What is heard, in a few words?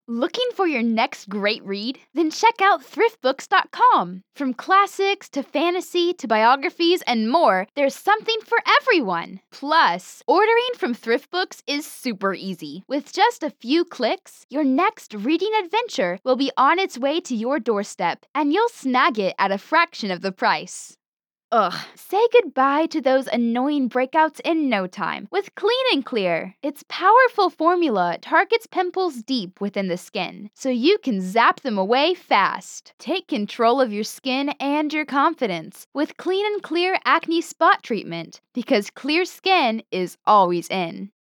commercial sample